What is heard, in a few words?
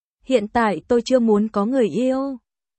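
Vietnamese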